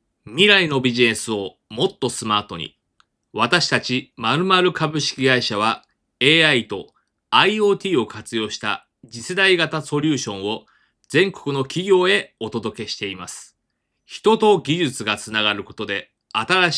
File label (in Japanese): 企業紹介・商品PR ナレーション